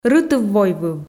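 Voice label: "рытыв-войвыв", Komi, female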